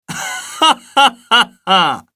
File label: happy; ishi